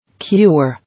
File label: "Cure", English